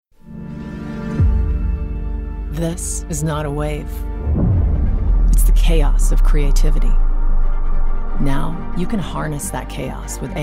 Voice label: Female Voice Over Tech TV Promo; Z by HP